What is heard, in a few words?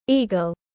Eagle en gb